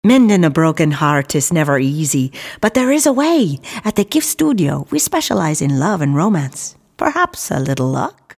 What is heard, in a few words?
irish